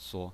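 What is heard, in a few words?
"suo", Mandarin Chinese pinyin, neutral tone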